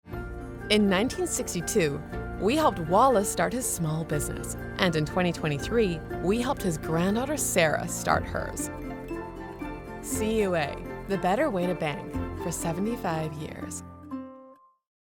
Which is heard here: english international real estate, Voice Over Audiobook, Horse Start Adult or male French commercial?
english international real estate